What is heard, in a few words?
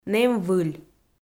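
"нэм выль", Komi, female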